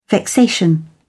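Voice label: "vexation", British English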